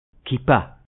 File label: "Kippah", Hebrew